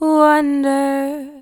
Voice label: "Wonder", Vocals, One-Words; GhostHack